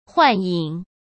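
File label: "幻影", Mandarin Chinese; chinese-flashcards; hsk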